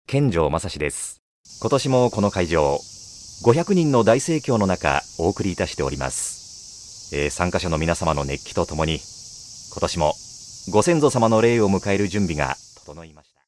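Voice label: male mc, English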